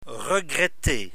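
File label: "regretter", French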